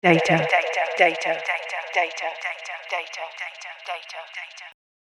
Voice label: Female Voice Delay; Recorded Voice Sound Effects; human voice sound effect; spoken word

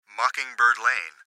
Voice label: Gps Voice, Male; Speaker Adult Male; Recorded Voice; Blastwave

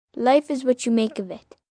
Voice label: Life What You Make, Sayings, 1 boy, 9 yrs; Talking Sound Effects

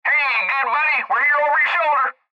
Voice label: Cb Trucker Radio; Radio Voice; Blastwave

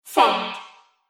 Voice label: Robot Voice Send; Robot Sound Effects; Sci-Fi Sound Effect

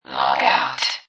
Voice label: Robot Voice "Log Out"; Robot Type 1 voice; Robot Sound Effects; Lynne Music

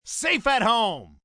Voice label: Safe At Home, announcer, male, baseball; Sports; umpire; Talking; VoiceBox

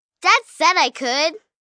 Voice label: Whine Dad Said, Whine, 1 girl, 9 yrs; Whining; Voice Box

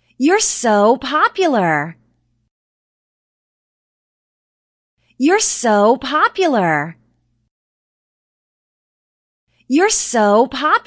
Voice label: Woman Says You're So Popular; Human Vocal Ringtone

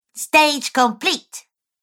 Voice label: Cartoon Girl Stage Complete, cartoon voice; Cartoon Voice Sound Effects

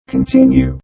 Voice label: Robot Voice "Continue"; Robot Sound Effects; Lynne Music

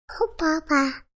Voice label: Tdlr Nnsne, Toddler Talks Nonsense; Talking

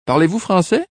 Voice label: French Do You Speak, Male; Talking

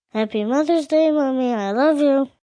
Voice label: Happy Mothers Day, Occasions, 1 boy, 5 yrs.; Holiday

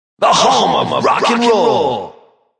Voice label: Home Of Rock, Male; Radio Sound Effects

